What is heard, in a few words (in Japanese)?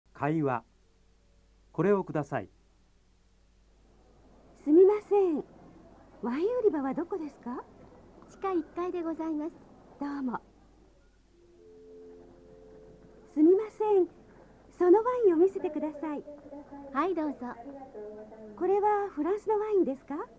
nihongo dialog; jap minna no nihongo